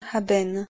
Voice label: "haben", Proto-Germanic